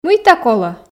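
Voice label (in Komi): мыйта колӧ, Komi, female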